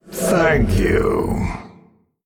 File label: Male Old God Thanks